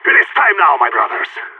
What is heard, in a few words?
radio lock n load; agents; phoenix